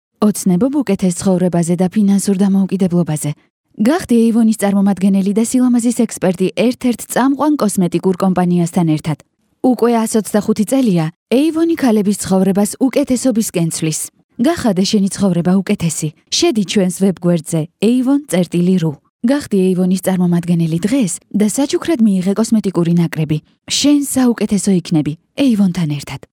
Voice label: Georgian female